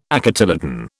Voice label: "acotyledon", British